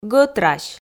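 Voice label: "гӧтрась", Komi, female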